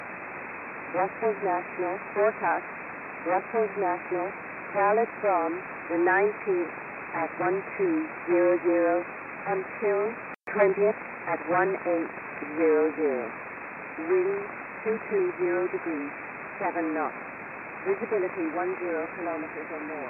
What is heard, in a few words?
Shannon Volmet USB; 13264khz